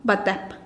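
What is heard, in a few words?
"batep", Teotitlán del Valle Zapotec; chayote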